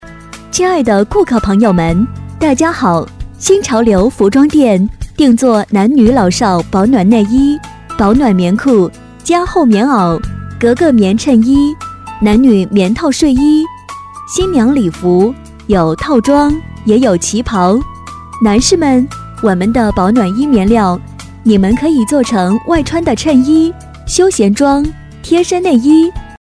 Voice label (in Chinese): 【促销】新潮流服装店